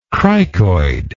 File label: "cricoid", English